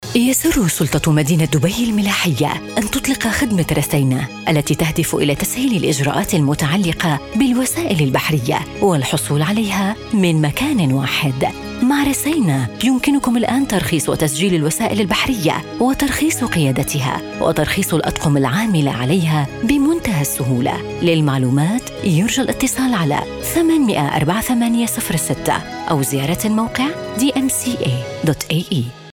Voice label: Arabic F

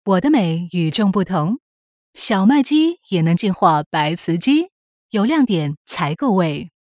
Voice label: Mandarin female Female40

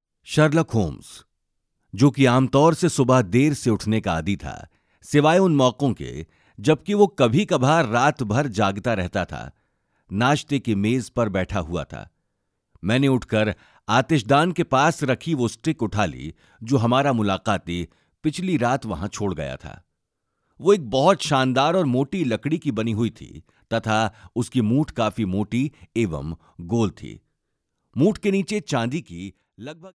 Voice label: Hindi M

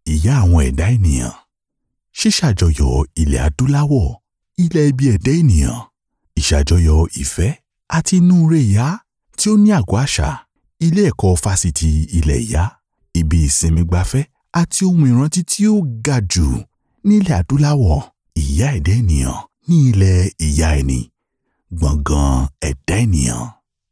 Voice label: YORUBA M

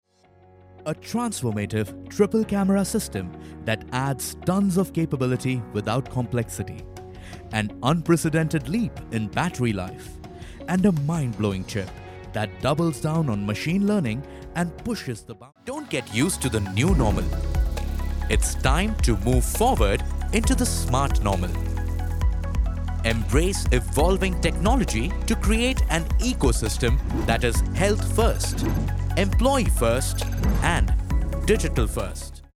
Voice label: Hindi-accented F English Commercial

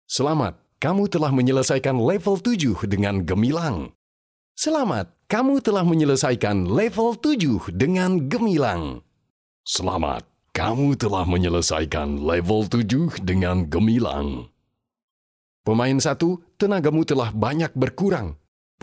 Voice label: Indonesian M Voices

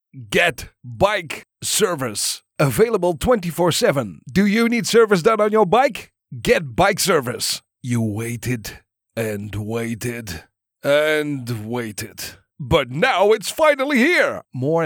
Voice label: Dutch English

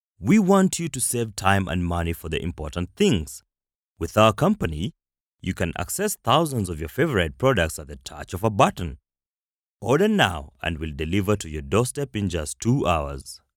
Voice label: Swahili English M

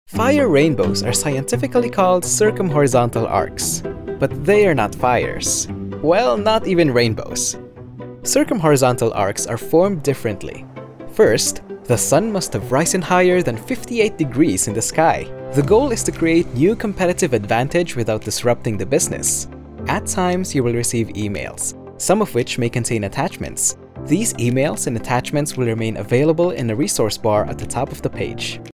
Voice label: Tagalog M English Narration

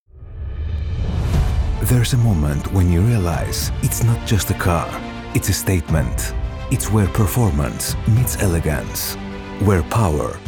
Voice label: Greek Male English sample

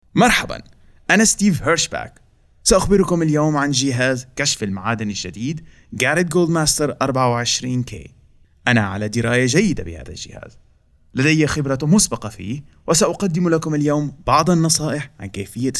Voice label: Arabic M

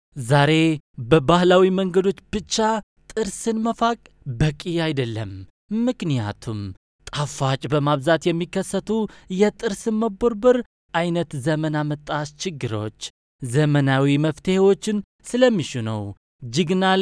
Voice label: Amharic male

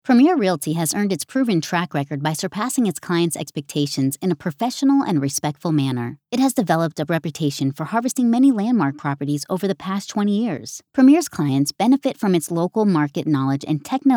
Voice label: English US F Corporate

